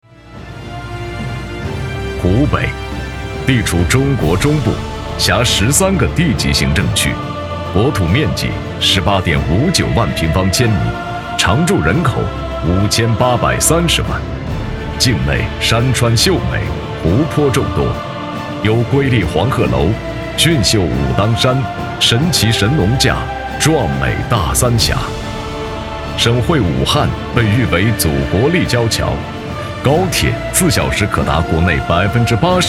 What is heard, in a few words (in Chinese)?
男; 湖北地处中国中部